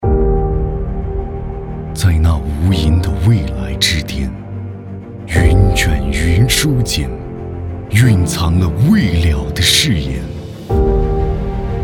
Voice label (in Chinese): 中年（36-54）, 普通话; 在那无垠的蔚蓝之巅